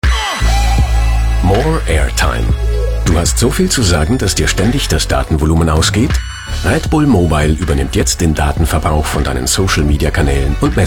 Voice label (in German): Werbung klassisch Online; Red Bull Mobile